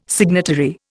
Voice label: "signatory", Indian